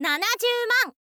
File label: info girl